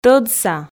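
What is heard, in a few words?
"тӧдса", Komi, female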